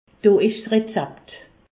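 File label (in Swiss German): "rezept", Alsace, Haut Rhin; medecine; voici une ordonnance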